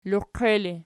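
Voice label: "loqie" ergative, Archi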